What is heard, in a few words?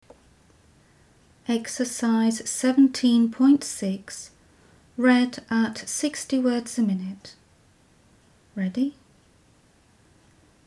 60wpm; Teeline